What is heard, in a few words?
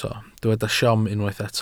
"siom", Welsh